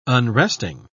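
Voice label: "unresting", English, British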